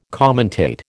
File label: "commentate", American